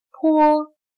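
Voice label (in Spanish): "坡", chino mandarin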